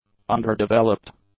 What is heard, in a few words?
"underdeveloped", English; adjectives